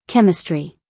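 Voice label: "chemistry", American English, female; everyone-can-use-english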